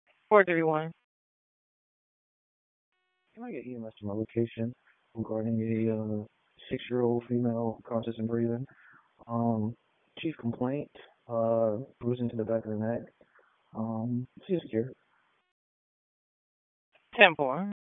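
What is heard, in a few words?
Police Southeast District Dispatch, p25; Raleigh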